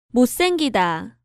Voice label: "Motsaenggida", Korean